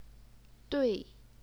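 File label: "dui", fourth tone, Chinese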